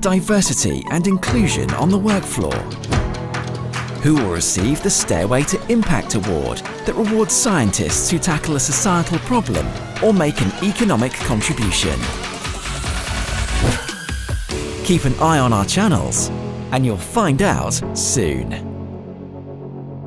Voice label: Promos Science Awards Week Teaser young UK male voice over; NWO